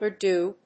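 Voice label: "Urdu", English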